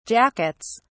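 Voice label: "jackets", British English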